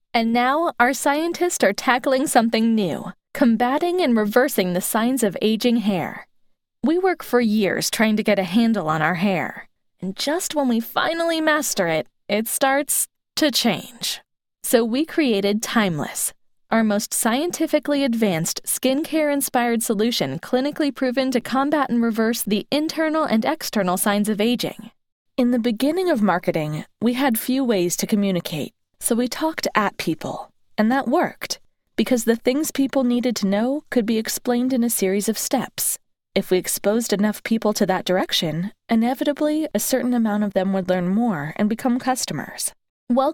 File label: Narration Samples